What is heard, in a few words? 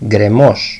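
"gkremow", Greek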